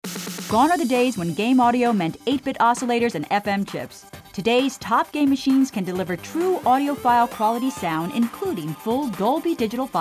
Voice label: Narration VO; Informative Fast Delivery; Nortel Networks